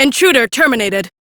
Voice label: Crew Enemy Defeat, female; Warframe; Railjack; Cephalon Suda